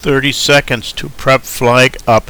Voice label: 30 sec prep up, Male English